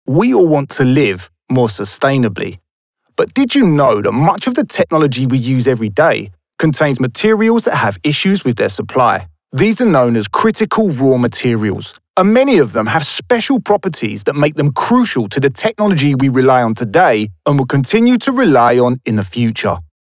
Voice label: ENERGY SAVING CORPORATE

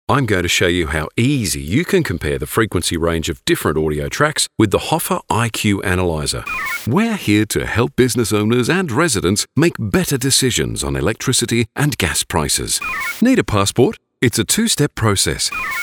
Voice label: Neutral English, E-Learning Web Explainers

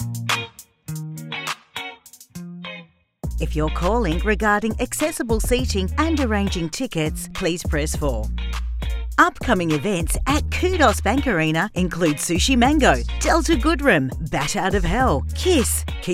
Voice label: On-Hold

